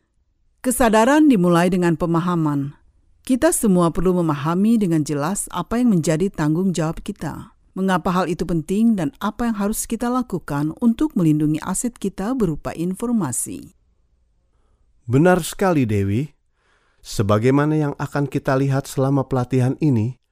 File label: locutora indonesia indonesian voiceover